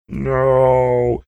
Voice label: drawn out no

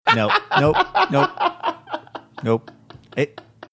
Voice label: laughing nope

